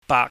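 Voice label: "baak", Cantonese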